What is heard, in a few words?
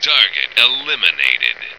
"Target", male; Marisa the Magician